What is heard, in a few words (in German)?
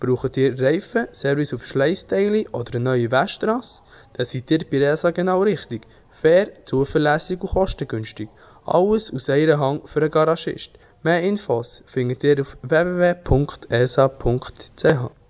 Werbespot; ESA Burgdorf